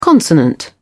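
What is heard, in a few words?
"consonant", English, British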